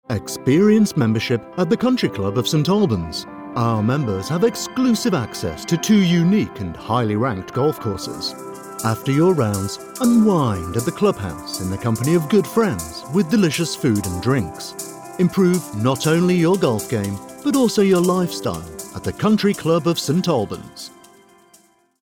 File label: voiceover corporate advert; health country club; commercial